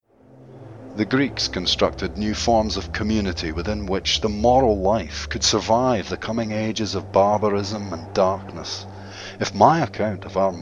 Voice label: Educational

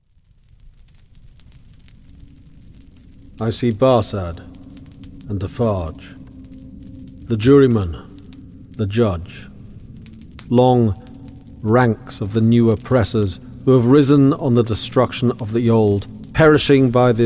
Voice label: Audio Book Narration

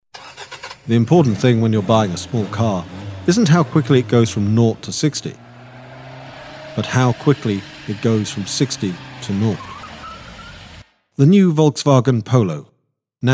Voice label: Commercial; VW Polo

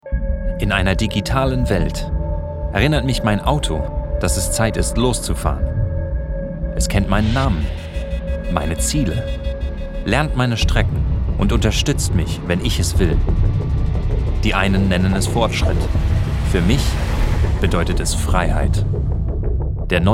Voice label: German

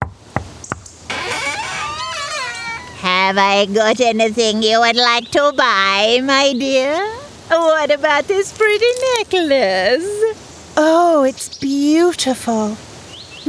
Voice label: character

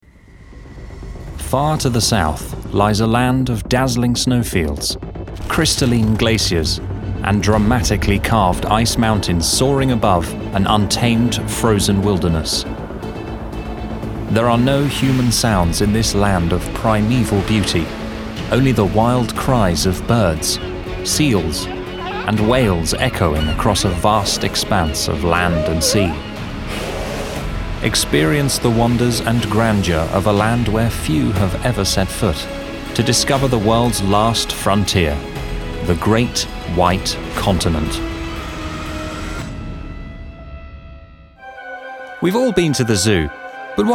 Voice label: Documentary

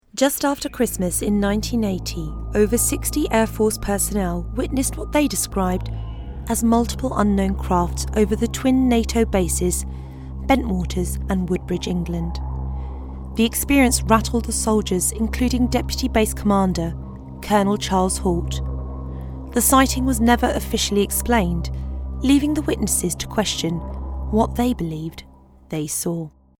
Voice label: UFO Documentary

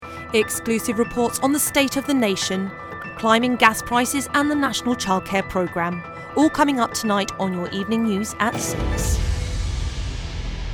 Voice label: News Ident

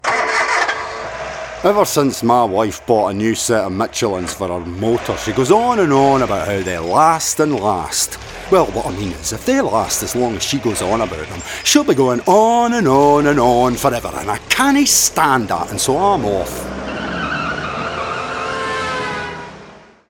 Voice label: Commercial; Michelin

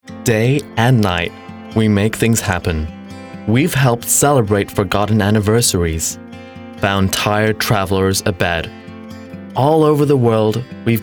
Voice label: American VO

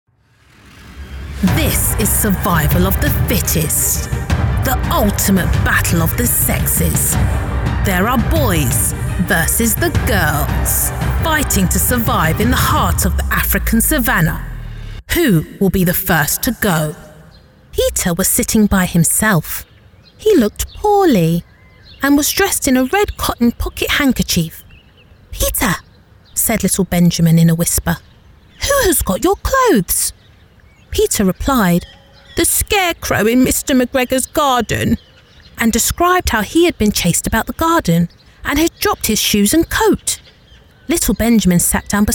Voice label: Narrative

